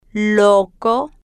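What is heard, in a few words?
"loco", spanish, slow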